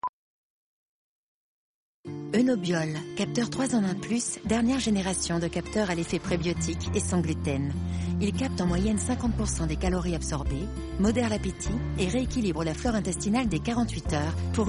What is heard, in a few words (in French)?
Spot Tv Informative médicale fluide, voix-off féminine; Oenobiol